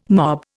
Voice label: "mob", Indian

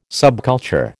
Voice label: "subculture", American

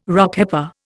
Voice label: "Rockhopper", Indian

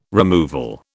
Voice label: "removal", British

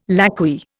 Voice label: "lacquey", Indian

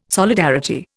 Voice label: "solidarity", Indian

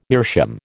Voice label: "meerschaum", American

